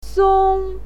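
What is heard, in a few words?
"song", Mandarin Chinese, first tone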